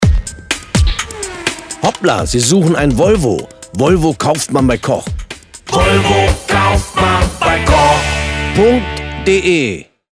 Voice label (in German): Radiospot; Volvo